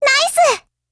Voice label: APPRECIATE JP